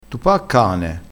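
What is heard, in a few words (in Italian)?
"cane", dialettale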